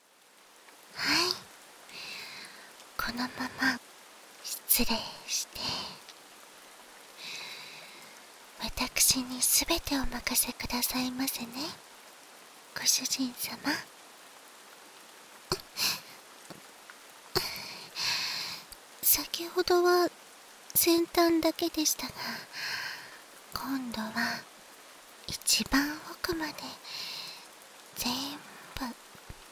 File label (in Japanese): 雨の中の夜伽 バイノーラルマイク 効果音あり大きめ, ASMR